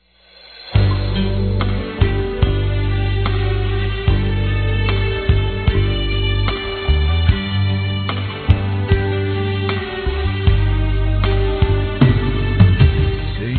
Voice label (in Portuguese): Natal Mae Feminino; Telemensagens; Natal e Ano novo